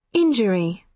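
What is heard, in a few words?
"injury", British English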